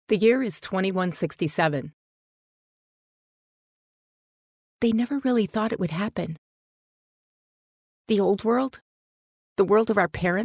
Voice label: 外籍女-游戏角色配音; VideoGame

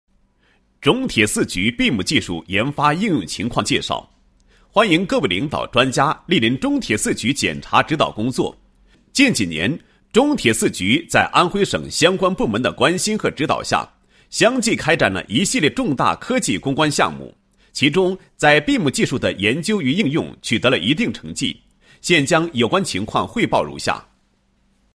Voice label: 男-工程项目; BIM研发应用情况

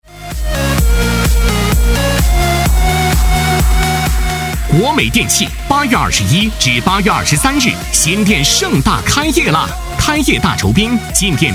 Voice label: 男 激情促销; 国美电器

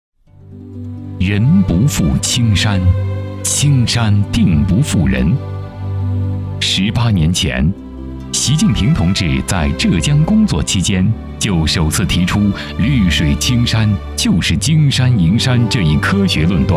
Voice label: 男 专题; 教育

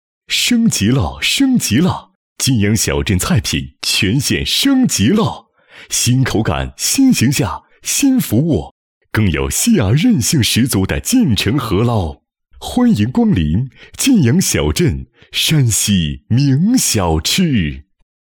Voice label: 男 广告; 晋阳小吃节

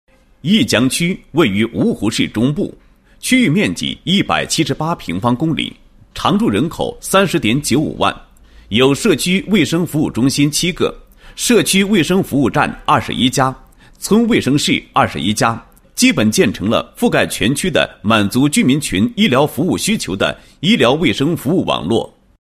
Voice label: 男 工作纪实; 某区创建市级社区卫生服务示范区工作纪实

